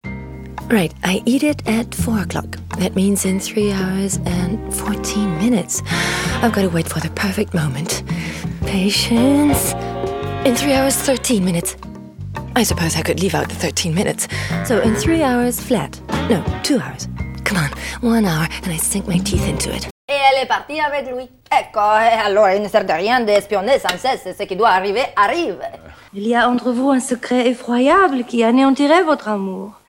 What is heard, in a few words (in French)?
anglais; Suchard